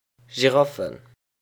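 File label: "Giraffen", Luxembourgish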